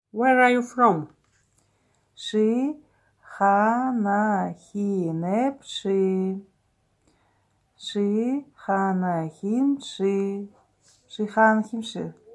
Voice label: Where are you from, Buryat